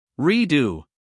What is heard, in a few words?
"redo", US male